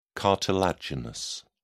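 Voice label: "cartilaginous", English, British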